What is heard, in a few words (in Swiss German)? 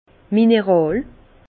"mineral", Bas Rhin